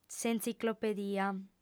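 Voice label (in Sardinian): "s'entziclopedia", female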